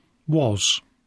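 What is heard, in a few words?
"was", English; poitiers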